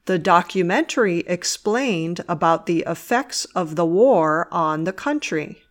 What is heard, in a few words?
documentary sentence; The documentary explained about the effects of the war on the country.; Palabra del Día